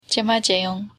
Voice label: tibetian see you later